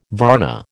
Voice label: "varna", American